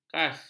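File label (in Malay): "kas", Malay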